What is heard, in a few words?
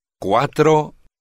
number four spanish